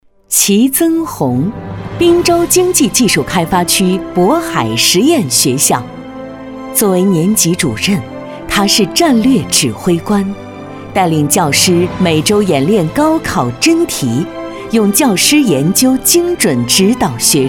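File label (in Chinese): 女 颁奖, 国语配音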